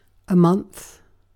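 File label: "month", British English; pronunciations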